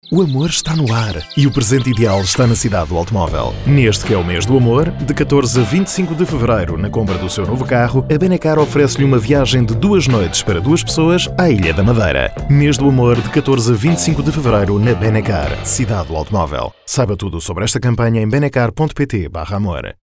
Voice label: portuguese commercial; European Portuguese voice over talent; Portugal

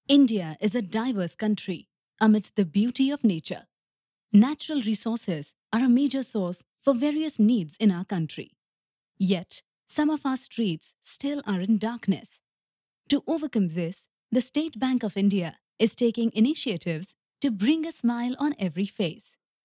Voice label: english indian commercial; Inglés (indio); Indian voice-over artist

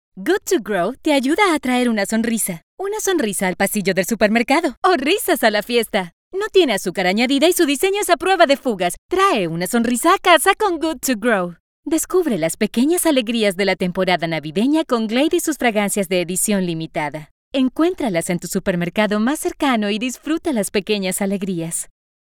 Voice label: spanish latin american promos

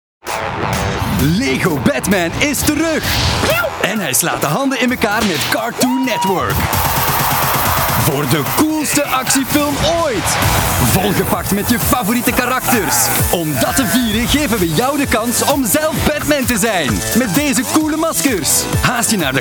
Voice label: flemish movie trailers

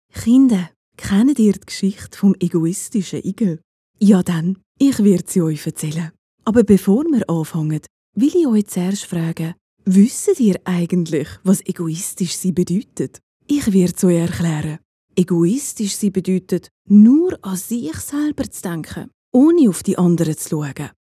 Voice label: german switzerland narration

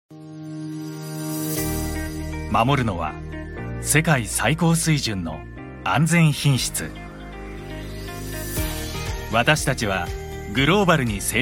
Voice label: japanese corporate videos

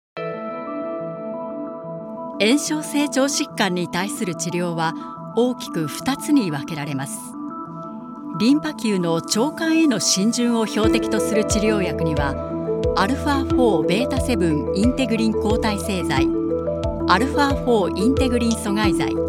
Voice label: japanese medical narration

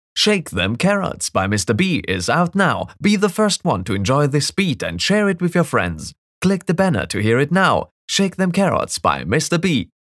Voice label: english promos

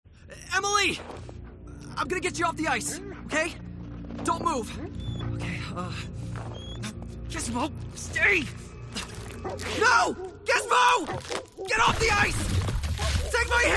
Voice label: english american video games

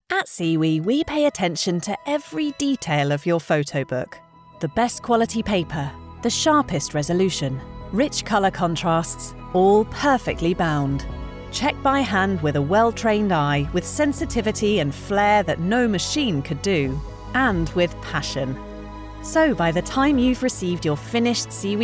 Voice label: english british

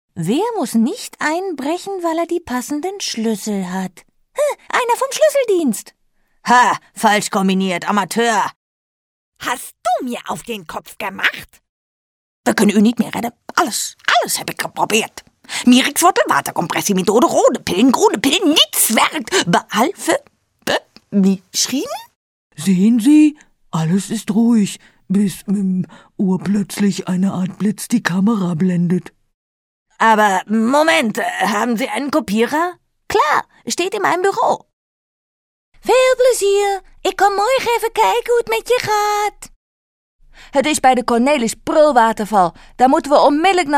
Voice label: german animation

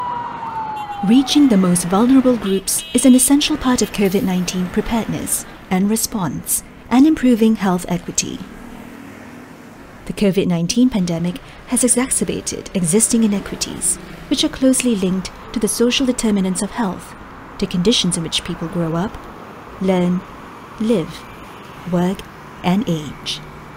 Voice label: english singapore documentaries

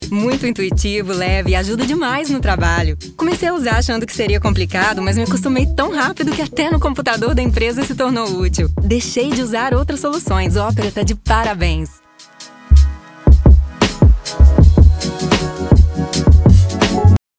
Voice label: Portuguese Brazilian promos

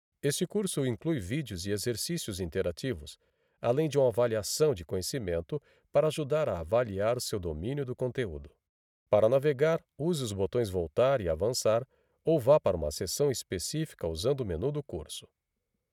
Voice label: portuguese brazilian audio guides; Brasil

